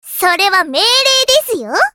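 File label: character voice Emotion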